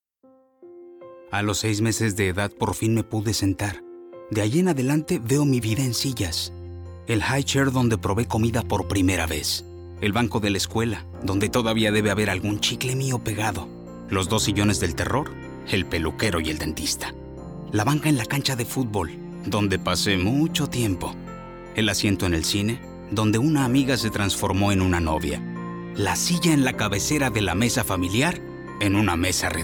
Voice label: Spanish